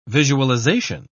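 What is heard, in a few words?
"visualisation", English, British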